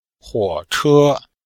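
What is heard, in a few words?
"huo3che1", Chinese; Cours de Chinois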